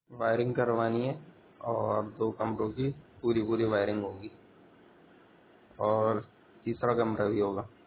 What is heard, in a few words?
Voice Note